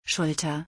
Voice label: Shoulder in German